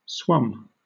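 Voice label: "swum", British English; pronunciation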